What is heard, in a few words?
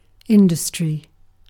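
"industry", British English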